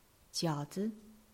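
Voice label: "jiao3zi", Chinese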